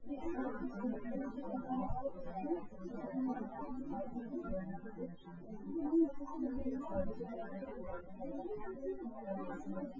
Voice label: female synthconv

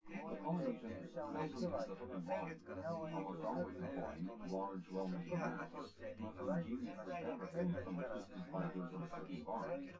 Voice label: male synth conv

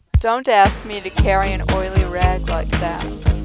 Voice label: speech music mix

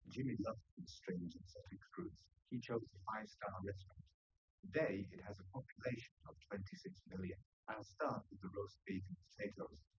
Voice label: male4 liverec 250ms 5cm sim; SiSEC08; SiSEC underdetermined

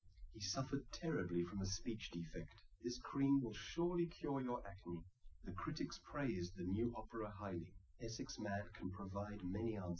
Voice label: male liverec

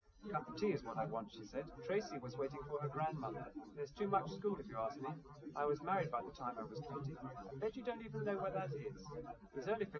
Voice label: test male liverec 250ms 1m src; SiSEC08; SiSEC underdetermined

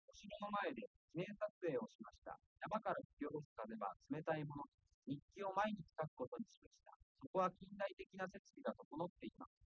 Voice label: male4 liverec 130ms 5cm sim; SiSEC08; SiSEC underdetermined

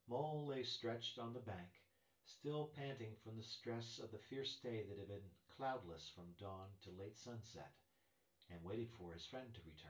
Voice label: male synthconv

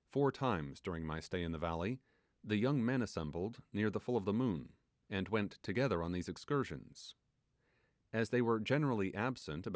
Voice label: male synthconv 250ms src

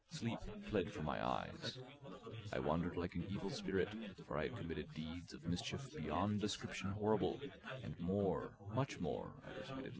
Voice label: male4 inst sim

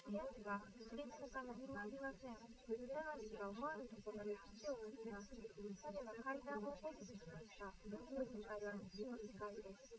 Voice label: female liverec; SiSEC11; underdetermined

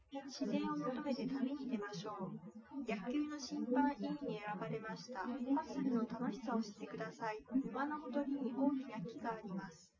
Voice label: female liverec; SiSEC08; SiSEC underdetermined

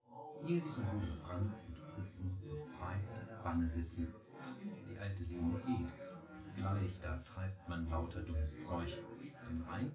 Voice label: male synth conv

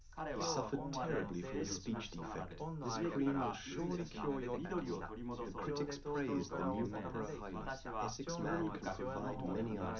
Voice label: male liverec mix

